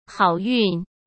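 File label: "好运", Mandarin Chinese; hsk; chinese-flashcards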